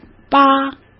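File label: "八", Mandarin Chinese; chinese flashcards; hsk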